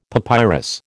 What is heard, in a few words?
"papyrus", American